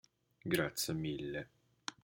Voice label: Thankyou Italian